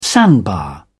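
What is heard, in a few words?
"sandbar", British English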